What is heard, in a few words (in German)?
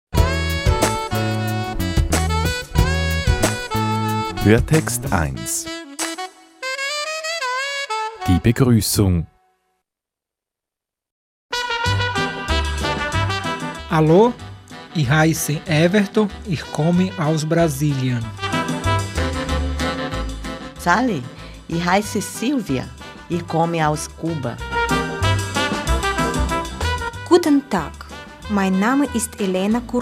hoerprobe buch; livros escolares